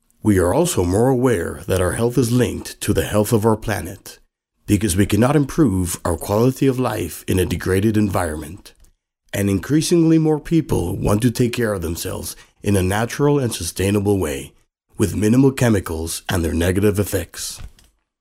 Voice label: irish voiceover locutor ingles irlanda; English recording